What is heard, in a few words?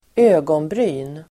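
"ögonbryn", Swedish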